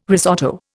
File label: "risotto", Indian